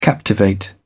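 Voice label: "captivate", English, British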